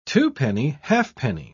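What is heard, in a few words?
"twopenny-halfpenny", English, British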